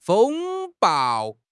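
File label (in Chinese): "风暴", Chinese; HSK6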